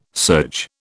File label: "surge", British